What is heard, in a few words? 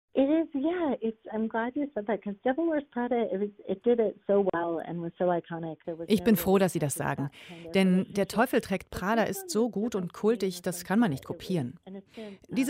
voiceover